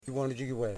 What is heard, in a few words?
"Head", Yimas